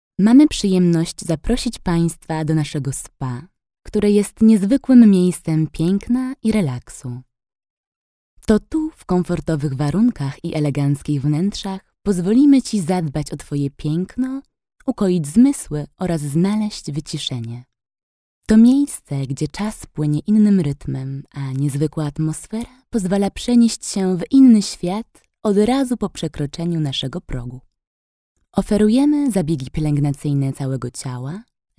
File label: commercial Polish